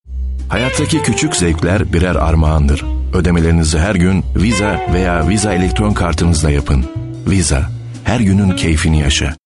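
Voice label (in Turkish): sicak samimi, seslendirme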